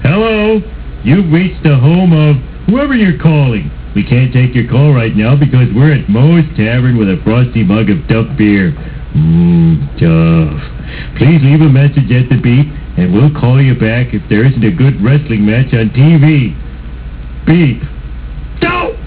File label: answerphone